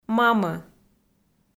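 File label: "мамӧ" Komi, female; kpv audio dict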